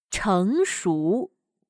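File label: "成熟", Chinese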